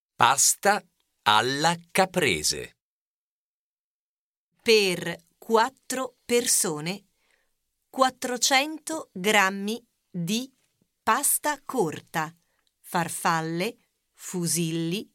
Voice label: Slow, Cuisine, Italian